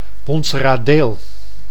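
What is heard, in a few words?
"Wonseradeel", Dutch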